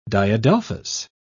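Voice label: "diadelphous", British English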